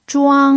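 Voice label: "zhuang1", Mandarin Chinese pinyin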